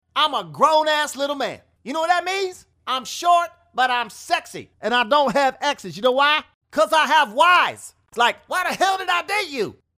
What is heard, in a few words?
Impression; Kevin Hart